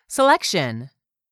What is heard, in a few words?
"selection", english; 3000 words